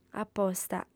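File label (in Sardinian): "aposta", female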